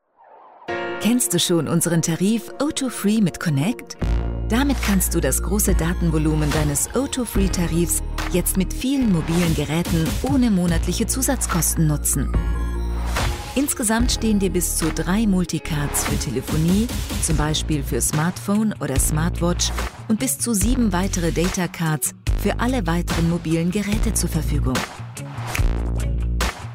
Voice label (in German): Imagefilm; O2 FreeConnect